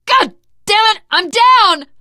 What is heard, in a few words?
incapacitated initial, survivor voice teen girl